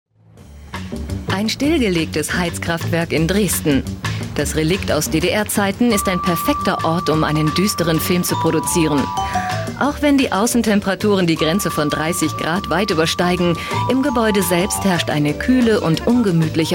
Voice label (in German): Kommentar Freundlich